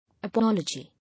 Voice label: "Apology", English

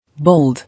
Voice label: "Bold", English

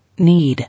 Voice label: "Need", English

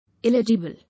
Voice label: "Illegible", English

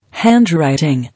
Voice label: "Handwriting", English

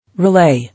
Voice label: "Relay", English

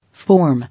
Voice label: "Form", English

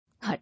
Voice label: "Hut", English

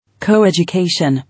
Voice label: "Co-education", English

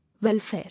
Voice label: "Welfare", English

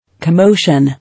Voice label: "Commotion", English

